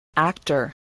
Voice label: "actor", English voice